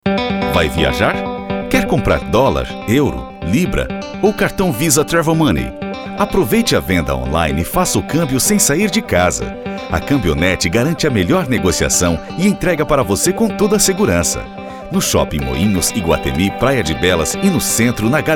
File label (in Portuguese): Spot